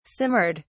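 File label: "simmered", British English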